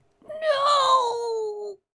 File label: Female Reactions